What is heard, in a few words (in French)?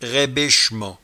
"raibechement", patois jura